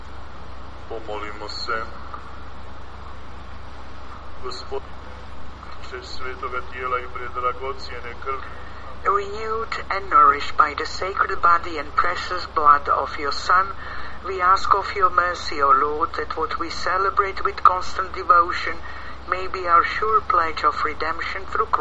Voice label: Prayer and Announcement; medjugorje 2018